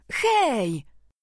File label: bonjour, voix